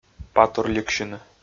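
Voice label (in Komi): "патурликсьыны", Komi, male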